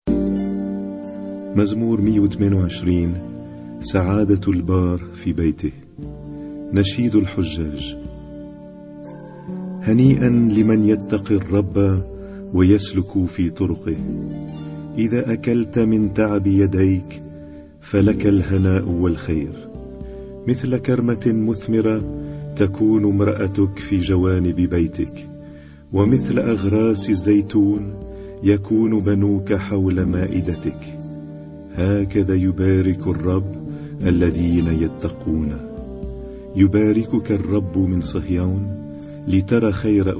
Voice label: Arabic Psalm Zaboor